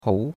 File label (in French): "hou2", chinois; Cours-de-Chinois